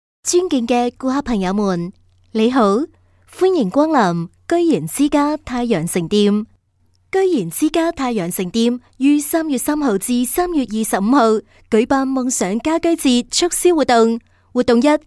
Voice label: 粤女 商场提示; 居然之家太阳城店

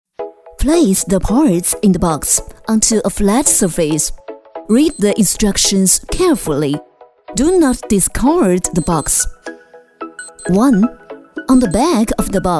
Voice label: 女 英文专题 解说

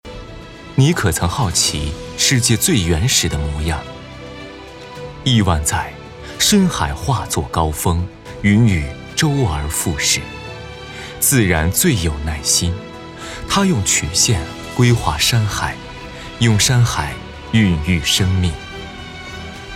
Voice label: 男 年会; 2022年度视频文案

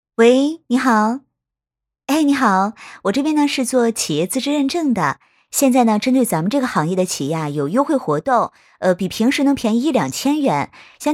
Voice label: 电话机器人

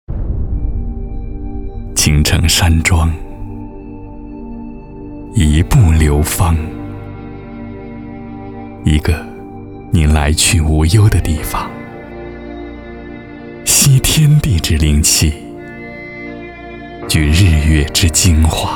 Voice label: 男, 宣传片, 山水幽静大气类型

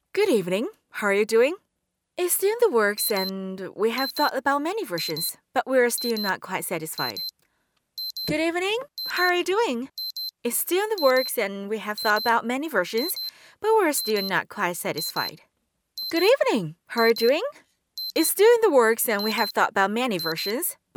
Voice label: 英文角色女声

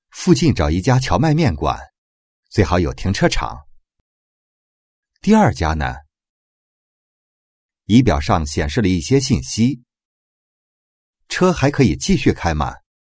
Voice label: 场景剧台词 AI客服角色配音 短剧配音

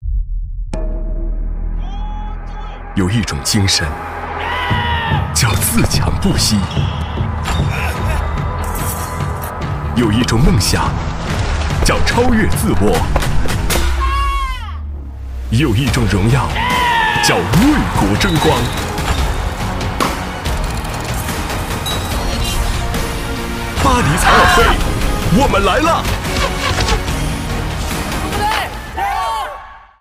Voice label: 男 主题宣传片; 巴黎残奥会; 圆梦巴黎

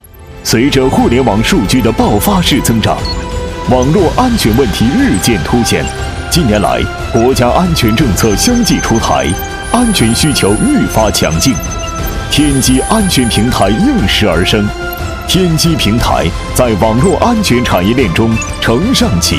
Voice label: 男 科技时尚 新品发布; 联通自主新品发布

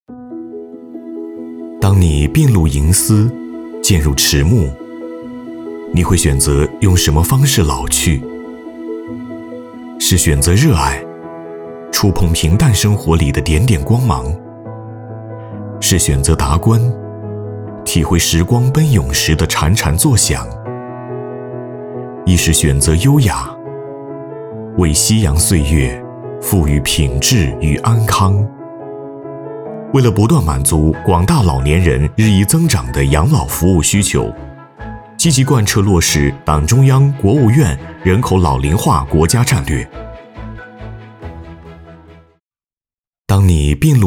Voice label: 男 专题宣传 温暖讲述自然

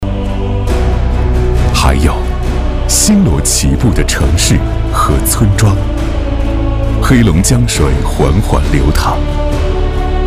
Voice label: 男 宣传片; 黑龙江卫视爱上这座城

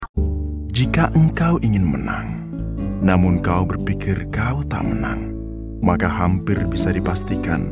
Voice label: 印尼语男-抒情语调

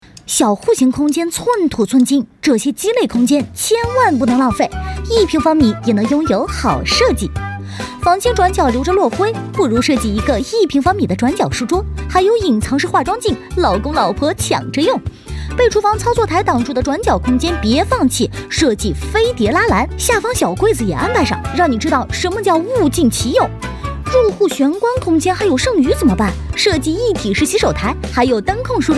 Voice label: 女 介绍类 房型介绍

